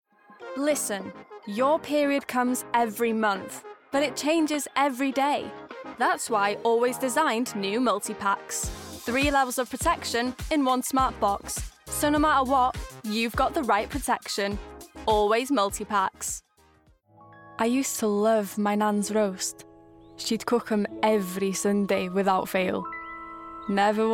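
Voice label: commercial reel, Teens-30s. Female. Welsh. Studio.; Commerical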